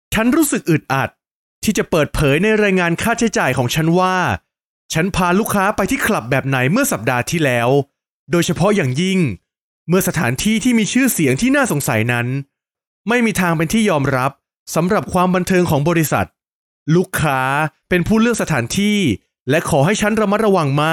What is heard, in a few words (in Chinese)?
泰语男, 外语; 小语种